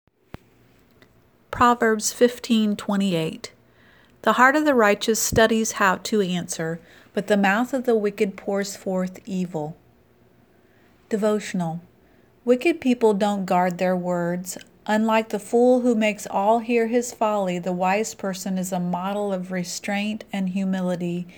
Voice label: Childrens Bible Verse